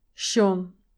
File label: "shone", english pronunciation